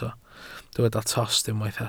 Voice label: "tost", Welsh